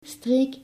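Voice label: "streek", Dutch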